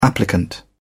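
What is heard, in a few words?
"applicant", British English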